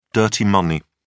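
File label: "dirty money", British English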